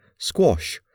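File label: "squash", English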